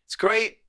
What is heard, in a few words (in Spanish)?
Voice Acting es M